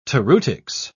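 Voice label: "toreutics", British English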